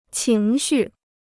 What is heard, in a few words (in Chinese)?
"情绪", Chinese